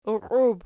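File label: "uqiub" nominative, Archi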